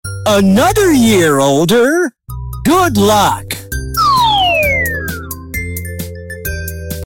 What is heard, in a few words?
Another Year Older Good Luck Funny Ringtone